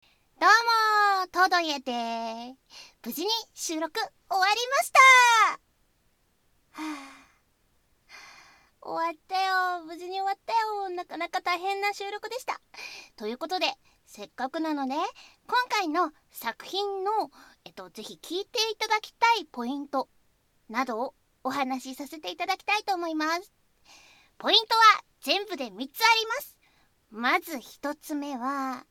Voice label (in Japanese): フリートーク 収録後; こだわりのダミーヘッド・バイノーラル録音; みみせんか・耳かき; こっくりや 初拝